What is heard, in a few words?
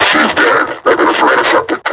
announce kill player, combine suppressor